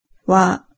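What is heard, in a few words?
"wa", Japanese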